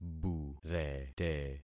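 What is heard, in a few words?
"burete", concatenated syllables; Affixing experiment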